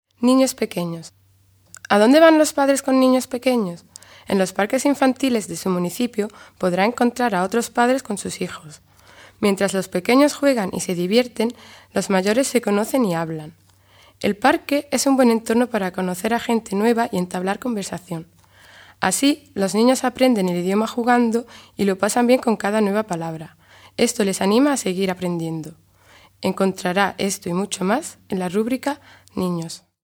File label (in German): spanisch; kleinkinder